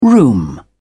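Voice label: "room", English, British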